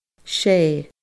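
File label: "sé", Scottish Gaelic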